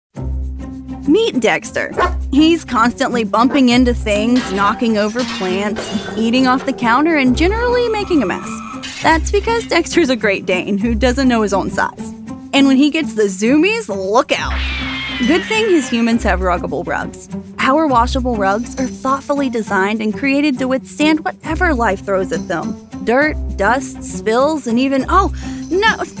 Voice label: Explainer